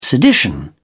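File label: "sedition", British English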